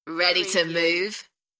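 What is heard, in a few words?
UK female, DJ vocals; beanstalk-audio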